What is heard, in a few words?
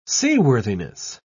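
"seaworthiness", British English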